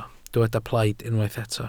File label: "plaid", Welsh